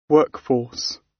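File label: "workforce" British; Business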